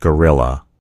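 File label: "gorilla", American English; gcompris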